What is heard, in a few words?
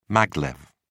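"maglev", British English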